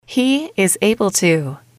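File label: He is able to can, female, English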